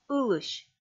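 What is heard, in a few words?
"ылысь", Komi, female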